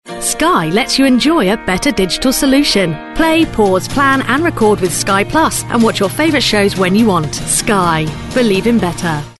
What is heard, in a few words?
promo